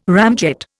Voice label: "ramjet", Indian

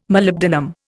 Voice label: "Molybdenum", Indian

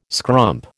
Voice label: "scrump", American

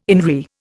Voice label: "INRI", Indian

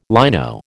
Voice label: "lino", American

